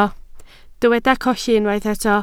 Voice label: "colli", Welsh

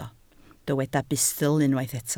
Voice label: "bustl", Welsh

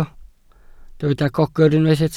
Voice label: "gogr", Welsh

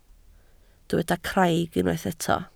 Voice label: "craig", Welsh

